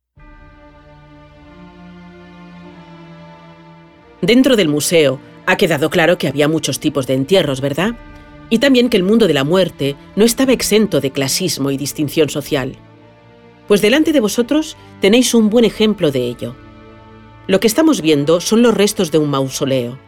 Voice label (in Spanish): audioguia; necropolis; mausoleu de planta central cast